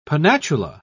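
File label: "pennatula", English, British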